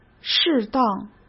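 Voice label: "适当", Mandarin Chinese; hsk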